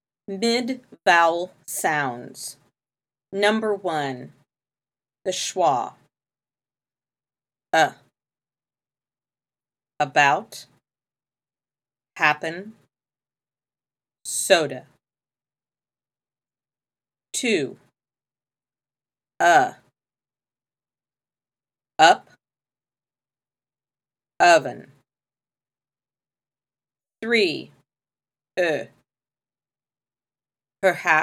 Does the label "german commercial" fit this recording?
No